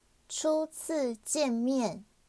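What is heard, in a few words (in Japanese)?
台湾華語で「はじめまして」は「初次見面」音声; 初次見面（chu-ci-jian-mian）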